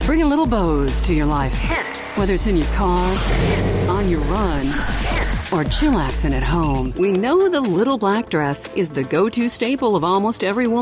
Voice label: Commercial